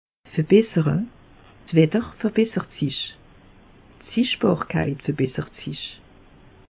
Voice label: "wetter", alsace; meteo

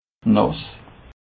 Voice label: "nass", alsace

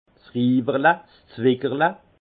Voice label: "riwerle", alsace; vin; robinet de dégustation

